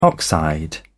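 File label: "oxide", British English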